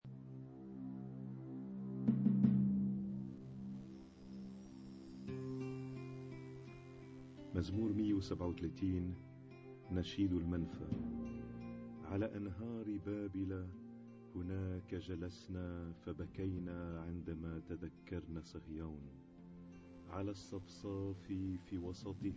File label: Arabic Psalm Zaboor